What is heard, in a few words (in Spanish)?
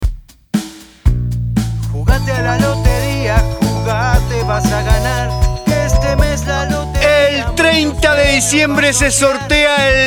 perifoneo; gordo 2019; LOTERIAS